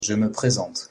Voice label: pronunciation fr je me presente; ch 1 je suis